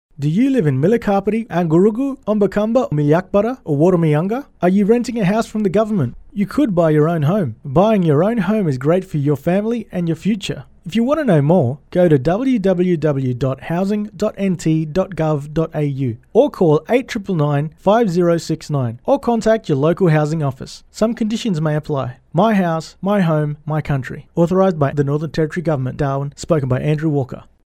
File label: English